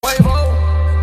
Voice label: Ad Lib